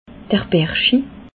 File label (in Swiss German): "berschi", alsace